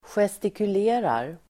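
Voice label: "gestikulerar", Swedish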